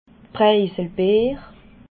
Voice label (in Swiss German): "preiselbeer", alsace